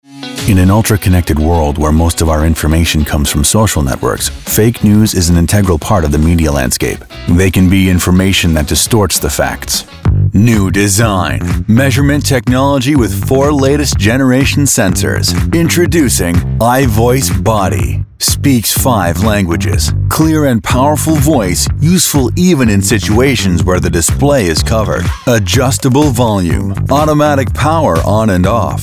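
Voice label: English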